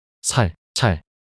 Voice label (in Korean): 살찰 낱소리 남자